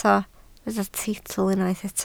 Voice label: "teitl", Welsh

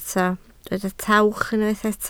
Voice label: "tawch", Welsh

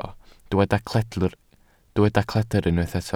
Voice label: "cledr", Welsh

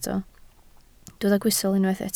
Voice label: "gwystl", Welsh

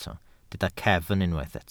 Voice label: "cefn", Welsh

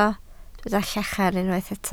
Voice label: "llachar", Welsh

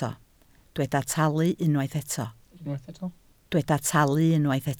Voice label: "talu", Welsh

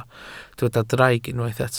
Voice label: "draig", Welsh

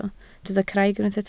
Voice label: "craig", Welsh

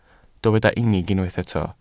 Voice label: "unig", welsh

